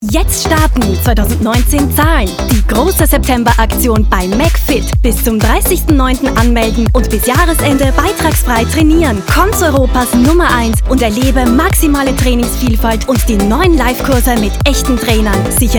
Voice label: funkspot austria; rekorderstudios; mcfit; sprechproben